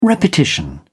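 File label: "repetition", British English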